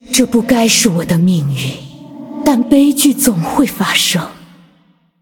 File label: Female Ghost Picked; zh